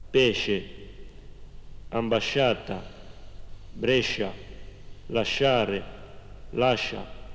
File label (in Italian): Fonemi Lunghi Genova